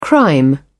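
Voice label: "crime", British English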